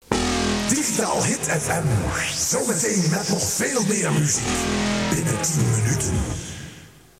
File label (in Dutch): Jingle Digitaal Hit FM met zometeen meer muziek